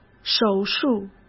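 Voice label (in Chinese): "手术" Mandarin Chinese; chinese-flashcards; hsk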